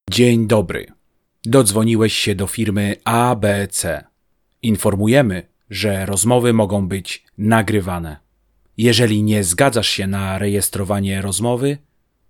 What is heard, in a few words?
Polish, Male